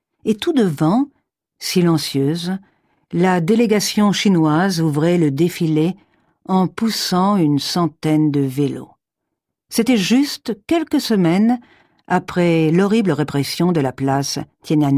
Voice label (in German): FR Sanft